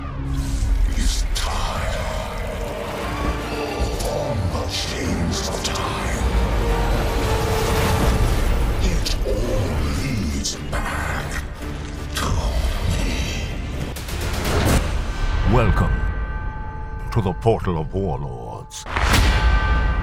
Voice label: male English Scottish multiple accents gaming showreel